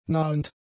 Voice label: "nahend", German; adjectives